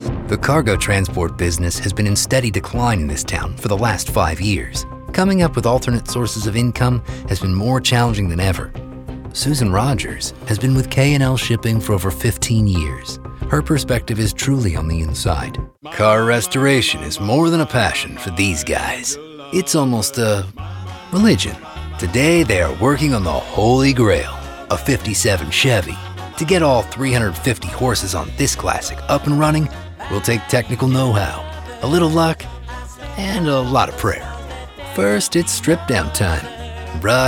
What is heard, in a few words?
Narration